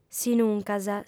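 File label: "sinuncas", Sardinian, female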